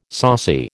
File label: "saucy", American